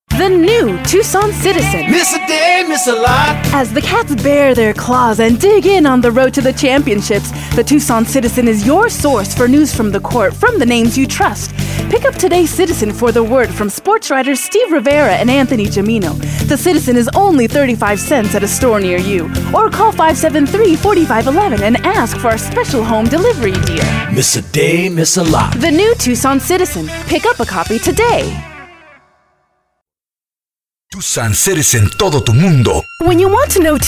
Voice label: Commercial; Female voices